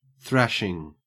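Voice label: "thrashing", Australian English; pronunciations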